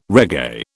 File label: "reggae", British